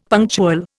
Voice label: "punctual", Indian; Dictionary

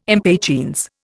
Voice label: "impatiens", Indian

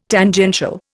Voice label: "Tangential", Indian

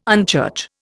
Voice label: "unchurch", Indian

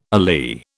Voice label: "alee", British

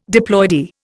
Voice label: "diploidy", Indian

